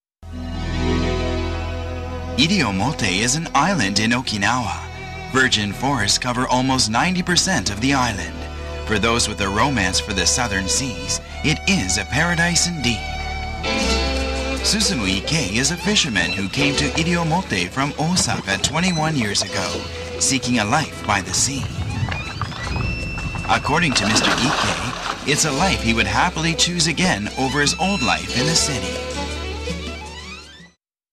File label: narration